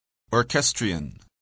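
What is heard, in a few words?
"orchestrion", English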